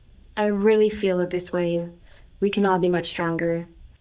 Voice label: Voice Acting, es, F0